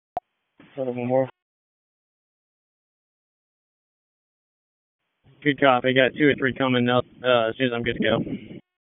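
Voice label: Public Safety Tactical, p25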